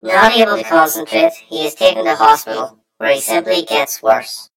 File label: story voiceover; yumpod